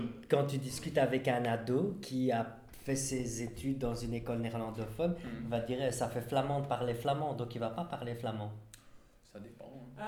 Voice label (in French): flamand example